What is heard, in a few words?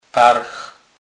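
"parch", Polish, Kurpie dialect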